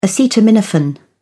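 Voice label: "acetaminophen", British English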